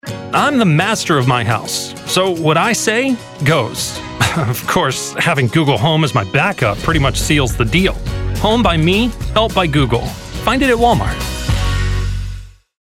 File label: commercial; google